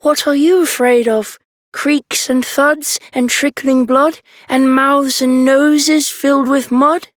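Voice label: Child Rhymes Fear Child Male; Duviri; Childrens Rhymes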